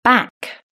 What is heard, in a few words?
"back", british english